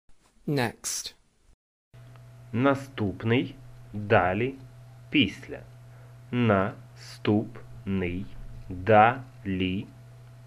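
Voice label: Ukrainian Next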